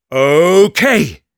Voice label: Sniper cheers, de

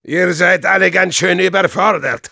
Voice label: Spy taunts de

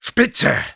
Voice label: Sniper cheers, de; "Spitze!"

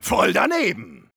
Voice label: Engineer cheers

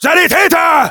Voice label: Demoman medic, de

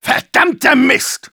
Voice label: Engineer negative vocalization, de

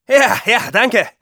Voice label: Scout thanks, de; Ja, ja, Danke!

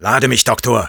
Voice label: activate charge, de; "Lade mich, Doktor!"; Sniper

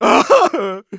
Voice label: Engineer pain severe, de

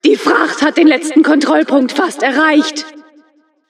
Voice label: Announcer cart attacker final warning, de; "Die Fracht hat den letzten Kontrollpunkt fast erreicht!"

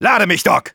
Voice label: Engineer activate charge, de

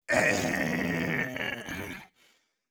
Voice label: Engineer negative vocalization, de

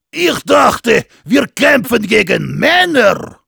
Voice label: taunts, de

